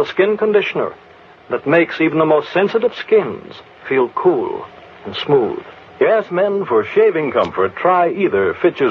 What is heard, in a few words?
Shave Cream, Old Radio Ads; Fitch